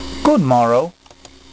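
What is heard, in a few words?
good morrow, Elizabethan English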